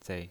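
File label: "zei" Mandarin Chinese pinyin voice, neutral tone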